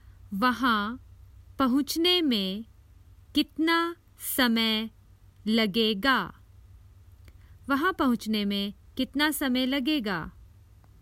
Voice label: How long does it take to get there, Common Hindi Phrases for travel